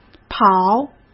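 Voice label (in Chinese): "跑", Mandarin Chinese; chinese flashcards; hsk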